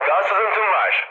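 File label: damaged water, mood med, Turkish; War Thunder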